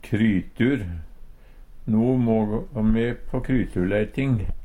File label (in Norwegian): krytur, Numedalsmål